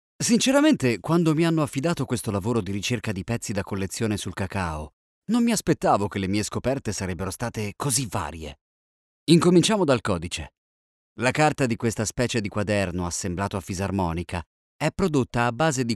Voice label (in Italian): audioguida